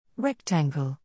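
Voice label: "rectangle", English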